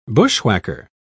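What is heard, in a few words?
"bushwhacker", British English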